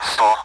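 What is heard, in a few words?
Speech Sound Russian Common AWACS Numbers; DCS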